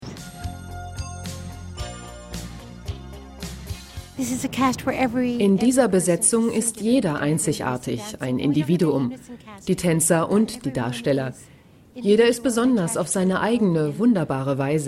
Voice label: voiceover

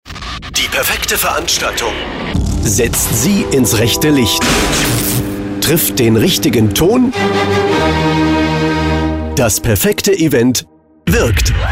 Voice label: promo; emir entertainment

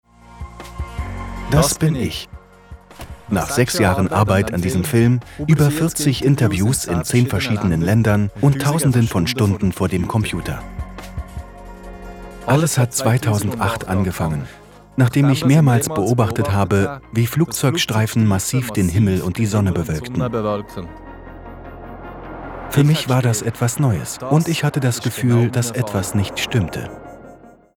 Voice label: voiceover doku; overcast

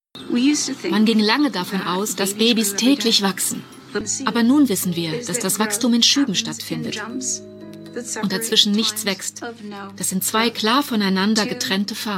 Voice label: voiceover; babies

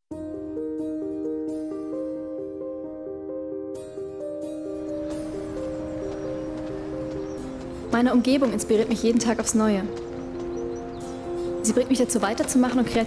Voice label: corporate; intuos art 2015 de; Muttersprache Deutsch

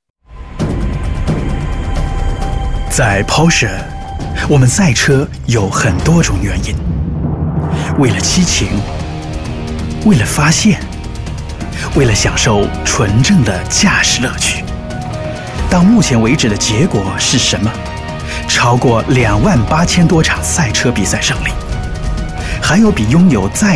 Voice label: voicedemo; porsche

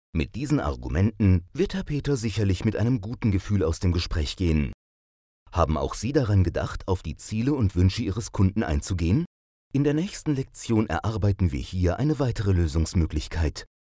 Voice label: de m e-learn

